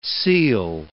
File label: "seal", English